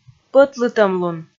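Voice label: "пӧтлытӧмлун", Komi, female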